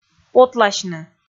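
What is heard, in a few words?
"потласьны", Komi, female; kpv audio dict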